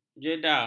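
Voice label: "jeda", Malay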